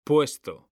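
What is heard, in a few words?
"puesto", Spanish, male; mekanta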